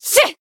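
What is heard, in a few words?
Voice attack, Japanese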